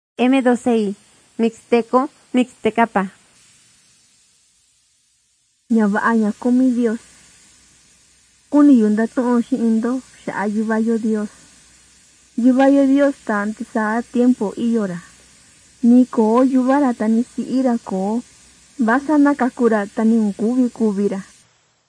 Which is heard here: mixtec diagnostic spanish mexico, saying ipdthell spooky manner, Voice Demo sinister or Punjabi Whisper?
mixtec diagnostic spanish mexico